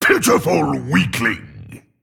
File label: Male Demon Attack